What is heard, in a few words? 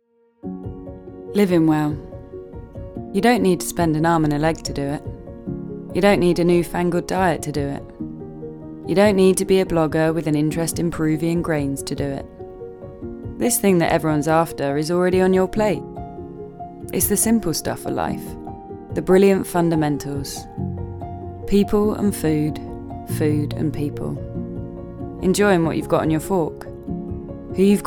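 Ad, female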